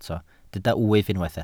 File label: "wyf", Welsh